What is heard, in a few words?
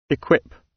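"equip", British English; Technology; Công nghệ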